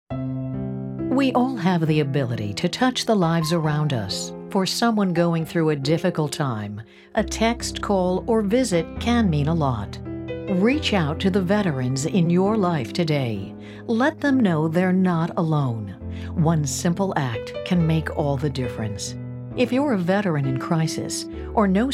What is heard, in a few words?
PSA Female